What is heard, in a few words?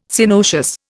"Synoecious", Indian